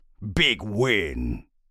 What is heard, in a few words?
voice big win; Sheriff Of Nottingham